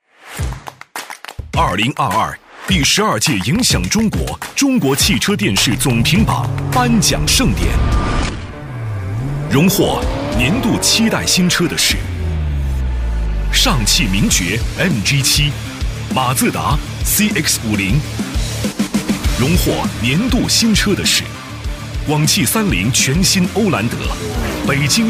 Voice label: 男 洋气 科技感 颁奖类 汽车电视总评; 年轻时尚

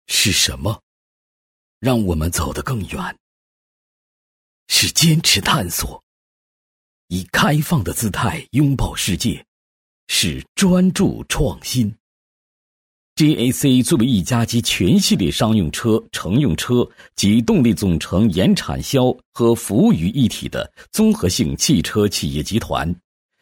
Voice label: 男 企业宣传片 大气骄傲 有感情; 高端内涵; 大气浑厚; JAC汽车

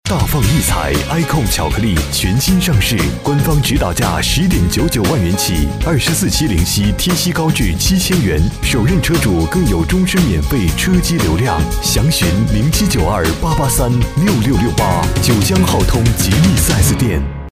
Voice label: 男 汽车广告 商务磁性; 大气沉稳 高端大气

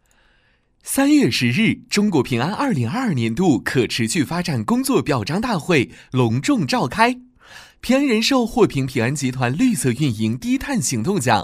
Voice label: 男 新闻配音 年轻 活泼; 平安银行; 年轻活力; 年轻稳重

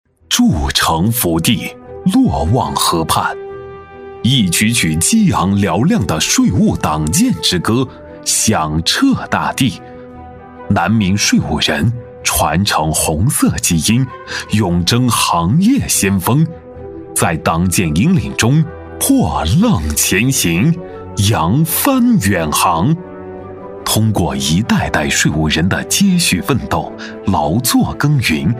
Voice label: 男 党政专题 大气党政; 南明税务

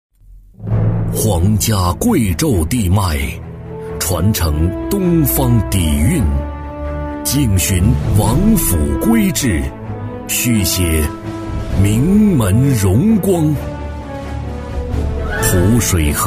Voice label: 男 房地产 大气成熟; 男B3-磁性稳重; 成熟厚重; 《顺王府》

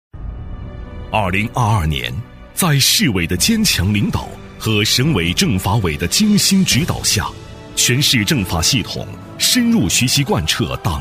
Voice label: 男 工作回顾 厚重气势

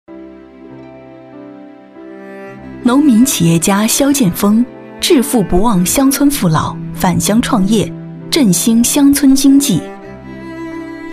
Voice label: 女 人物专题 稳重讲述; 深情感人

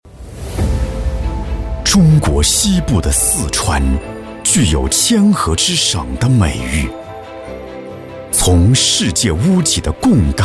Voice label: 男, 宣传片, 自然浑厚大气; 年轻多变; 大气浑厚; 中国四川

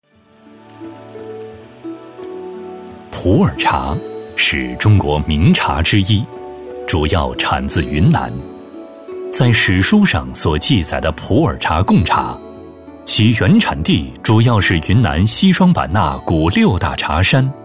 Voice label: 男 宣传讲解 舒缓讲述; 男59-刚柔并济 舒缓抒情; 普洱茶

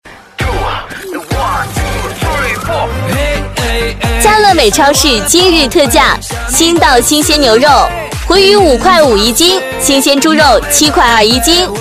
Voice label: 亲切女声 超市今日特价; 激情力度

